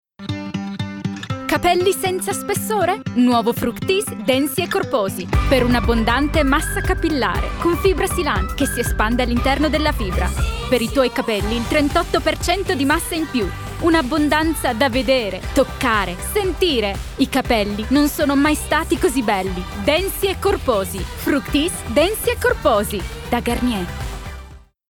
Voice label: female, Italian commercial; devine voices

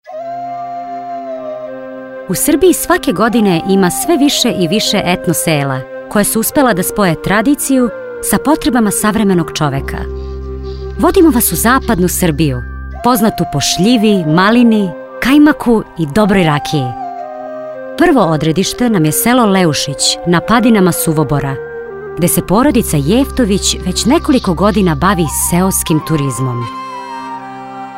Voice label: female serbian documentary narration

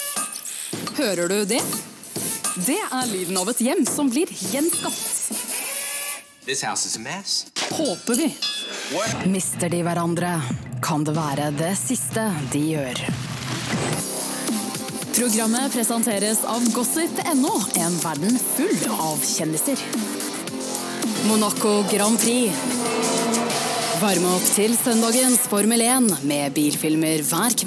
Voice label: female norwegian compilation

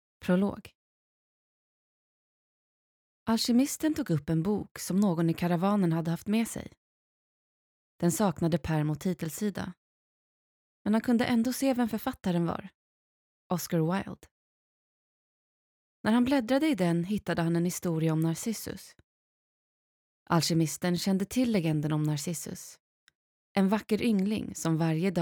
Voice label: female, Swedish audiobook compilation; voices

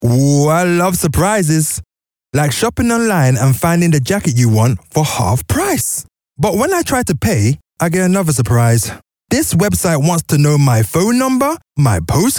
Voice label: male english london accent commercial; english with african accent

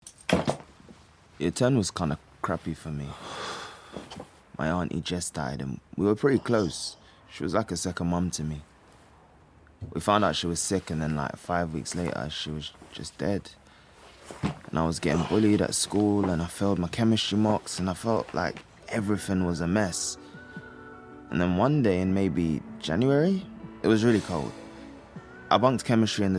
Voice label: male english character; bbc radio 4 exposure

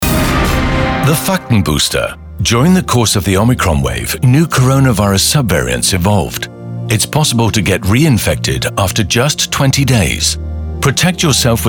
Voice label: male english; zusammen gegen corona fakten booster omicron german ministry of healt; Devine Voices

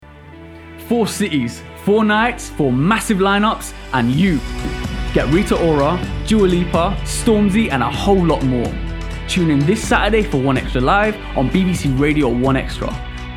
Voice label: male English MLE accent urban radio

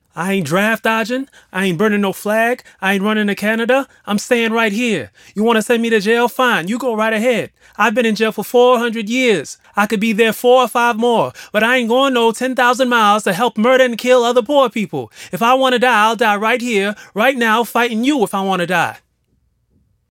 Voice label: male English USA USA accent voice

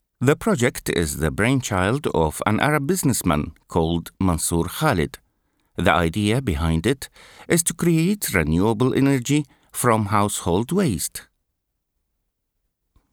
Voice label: male, Arabic, English with Arabic accent; voices; devine voices

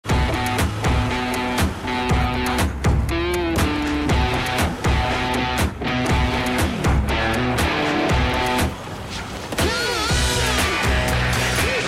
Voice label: male english northern accent commercial; english northern accents; vauxhall adam

